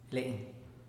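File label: "le'n", Teotitlán del Valle Zapotec; dentro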